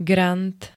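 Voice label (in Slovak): "grant", Slovak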